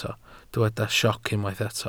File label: "sioc", Welsh